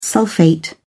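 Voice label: "sulphate", British English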